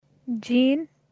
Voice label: Kashmiri; Win; Jaen

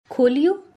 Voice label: "Kholio", Bundelkhandi; Open

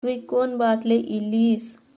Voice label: Tui kaun baatle ilis, Halbi

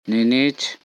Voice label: "Ninich", Korku

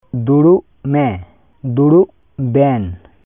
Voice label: Santali; Sit

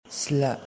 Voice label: "Tcla", Angami; Eat

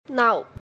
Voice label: "Naav", Khandeshi (Ahirani)

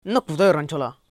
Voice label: Nak putai ranchola?, Lotha; How did you write?

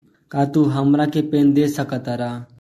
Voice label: Ka tu hamra ke pen de saka taar, Bhojpuri

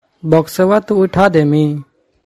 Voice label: Boxwa tu utha demi?, Magahi

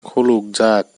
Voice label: "Khulukjag", Kokborok; Opened

